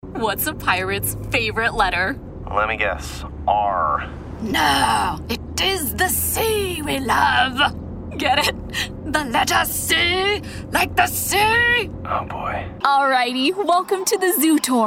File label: english us and canada female commercial